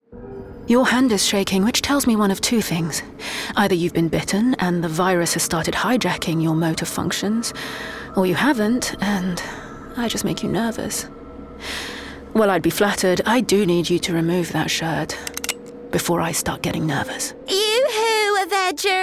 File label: Character Animation, Youthful, cheery, versatile